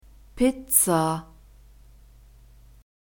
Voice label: "Pizza", German; alphabet